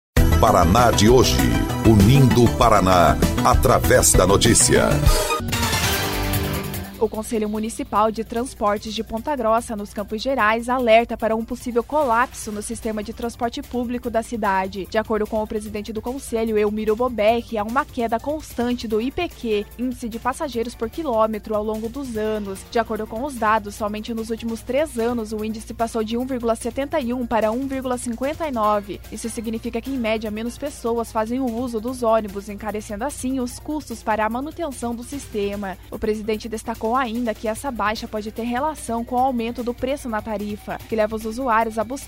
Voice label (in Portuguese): BOLETIM; CMT alerta para um possível colapso no transporte público de Ponta Grossa